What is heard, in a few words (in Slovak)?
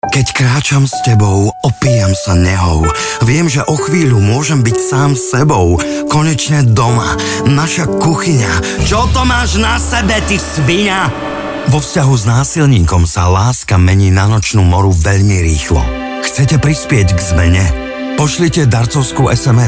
radio; pomoc obetiam